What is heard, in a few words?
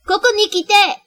Japanese Come Here